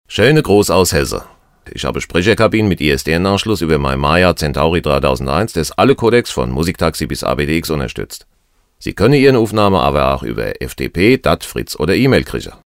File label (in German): Hessisch